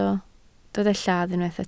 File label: "lladd", Welsh